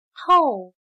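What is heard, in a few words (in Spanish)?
"透", chino mandarin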